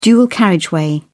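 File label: "dual carriageway", British English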